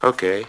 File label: "okay", expletives